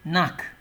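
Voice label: "nack", Nigerian Pidgin, male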